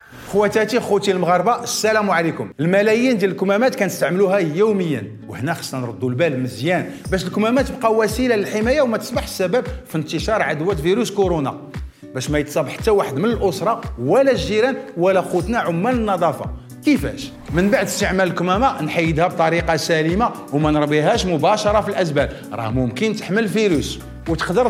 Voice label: spot Radio